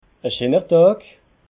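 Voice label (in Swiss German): "scheener dag", Alsatian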